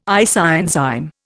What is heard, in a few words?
"isoenzyme", Indian